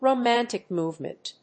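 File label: "romantic movement", English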